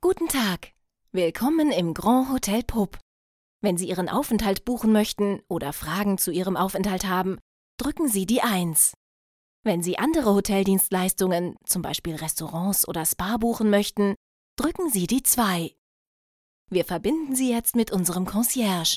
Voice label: IVR System Grandhotel Voice Sample; Pupp